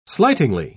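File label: "slightingly", British English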